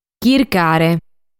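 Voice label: "Chircare", Sardinian word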